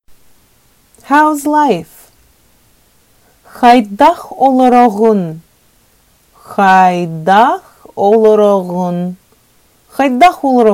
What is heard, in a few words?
Sakha Hows life